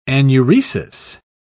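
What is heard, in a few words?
"anuresis", British English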